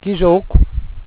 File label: "kisokw" = a day, Wobanaki